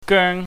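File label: "geung", Cantonese, high falling tone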